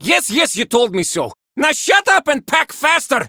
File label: Villagers Male, Cetus Invasion